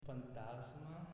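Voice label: "Fantasma", Italian, male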